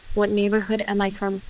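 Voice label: voice acting, es, female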